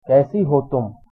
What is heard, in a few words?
how are you, hindi; कैसी हो तुम?; kaisī ho tum